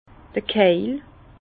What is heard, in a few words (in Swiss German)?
"keil", Bas Rhin; vin; coin